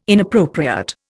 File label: "inappropriate", Indian